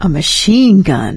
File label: take submachine gun, survivor voice; Left 4 Dead 2; producer